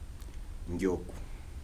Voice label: "elephant", Kibembe